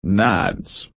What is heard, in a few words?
"nads", estados unidos; girias